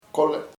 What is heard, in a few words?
grandmother, chamacoco; abuela